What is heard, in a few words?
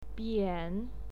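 "bian", Mandarin Chinese, third tone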